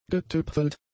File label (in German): "getüpfelt", german; adjectives